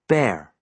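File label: "bare", American English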